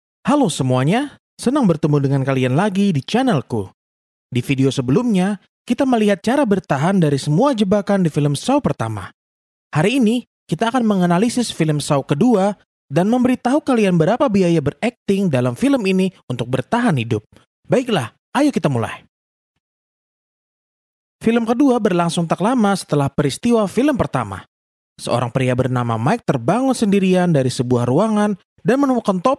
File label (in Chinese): 印尼语男声